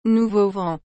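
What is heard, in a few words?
"nouveau vent", French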